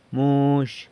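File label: "muzh", blonde, San Lucas Quiaviní Zapotec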